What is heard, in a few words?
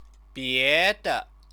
"biede", Chinese; 别 的; other; specifier